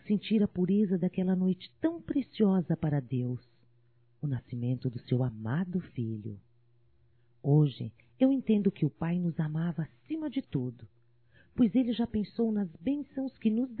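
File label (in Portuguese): NATAL NEUTRO, feminino; Telemensagens 2017; Natal & Ano Novo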